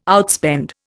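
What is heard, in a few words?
"outspend", Indian English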